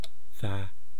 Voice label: "thaa", East Cree syllabics